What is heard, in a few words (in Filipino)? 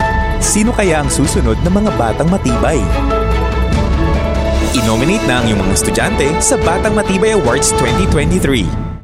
Tagalog; BB BATANG MATIBAY AWARDS